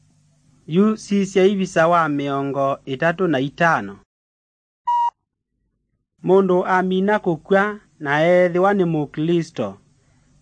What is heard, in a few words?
kikamba drama; good news; bible